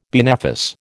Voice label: "benefice", American